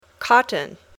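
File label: "cotton", English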